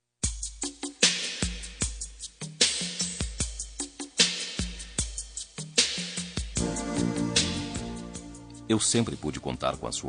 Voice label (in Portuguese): desc masc